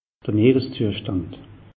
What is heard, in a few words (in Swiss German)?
"meere zuertand", alsace; meteo